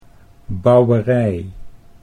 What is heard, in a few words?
"bouwerij", Dutch